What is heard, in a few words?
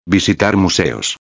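"visitar museos", Spanish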